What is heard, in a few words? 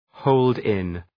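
"hold in", English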